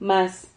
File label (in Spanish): "maz", Silabas